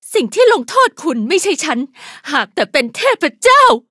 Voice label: levelup, th